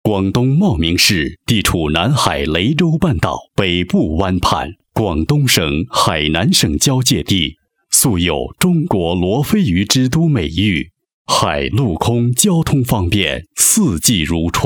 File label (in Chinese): 城市介绍, 国语男声配音, 稳重专题; 茂名市